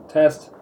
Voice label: voiceover; fungus